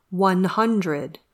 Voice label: "one hundred", English